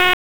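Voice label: Raleigh Police North District Tactical; wake; p25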